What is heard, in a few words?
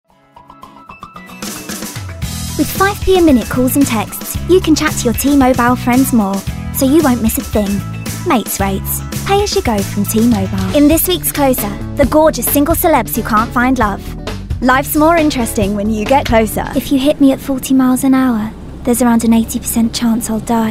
Commercial